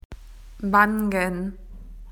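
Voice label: "cheeks", Australian English; PictureDictionary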